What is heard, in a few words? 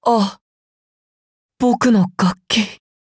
defeat ja